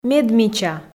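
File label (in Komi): "медмича", Komi, female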